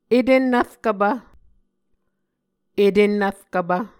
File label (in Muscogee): "etennvrkvpv", Muscogee